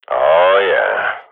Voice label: radio bot cheer, swat; Counter Strike Model Pack; CS:GO